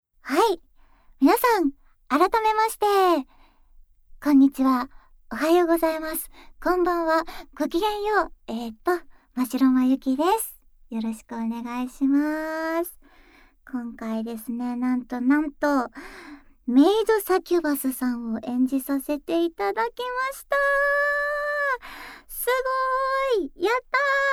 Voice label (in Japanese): フリートーク, ASMR